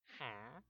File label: Villager Confused Sound; Minecraft